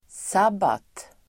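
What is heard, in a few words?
"sabbat", Swedish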